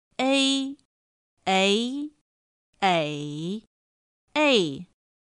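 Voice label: ēi éi ěi èi, Mandarin Chinese; pinyin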